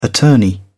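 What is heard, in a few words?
"attorney", English, British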